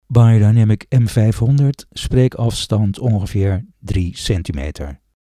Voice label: Beyerdynamic M500 NL